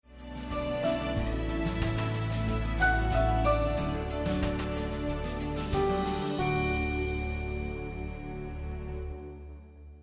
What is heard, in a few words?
Spanish Sermon